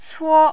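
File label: "cuo", first tone, Mandarin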